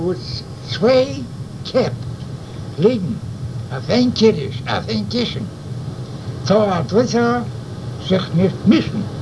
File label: yiddish